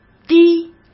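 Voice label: "滴", Mandarin Chinese; chinese flashcards; hsk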